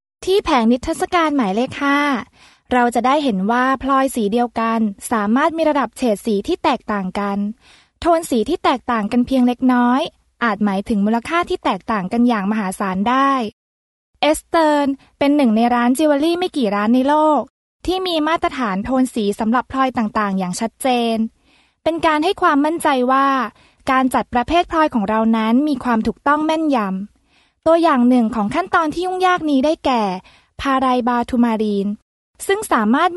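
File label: THAI F various, Thai, female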